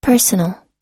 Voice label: personal, US; personal /pɚ́:sənl; 個人の、自分の、一身上の